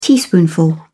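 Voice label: "teaspoonful", British English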